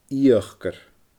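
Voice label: "iiochdar", Scottish Gaelic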